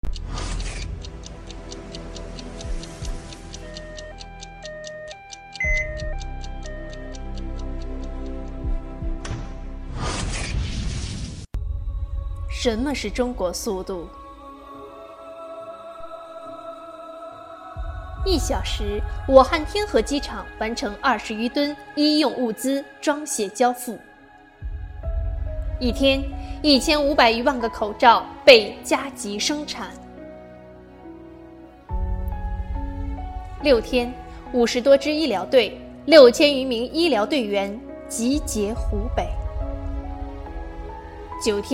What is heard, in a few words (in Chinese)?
公益片配音; 中国速度